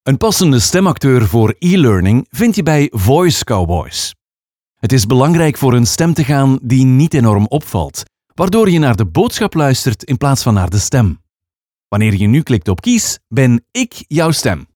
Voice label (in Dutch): elearning